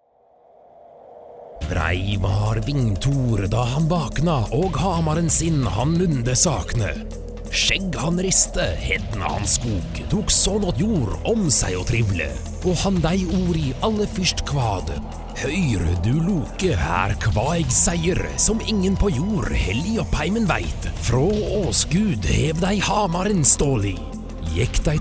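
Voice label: norwegian male commercial; voice demos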